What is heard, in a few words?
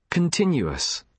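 "continuous", British English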